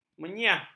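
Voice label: "menyiah", Malay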